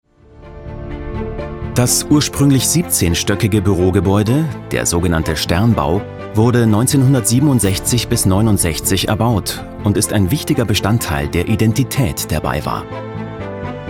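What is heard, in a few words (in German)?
commentary, Neutral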